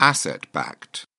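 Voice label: "asset-backed", British English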